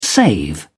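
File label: "save", English, British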